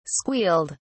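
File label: "squealed", British English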